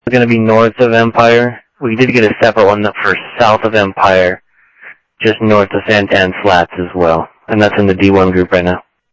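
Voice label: Sheriff East County, scanner audio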